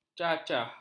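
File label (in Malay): "cacah", Malay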